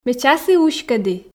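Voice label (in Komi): ме часі уськӧді, Komi, female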